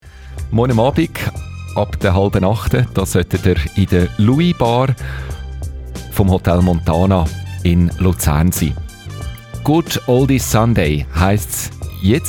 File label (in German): Werbung